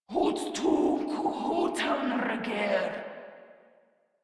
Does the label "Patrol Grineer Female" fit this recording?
Yes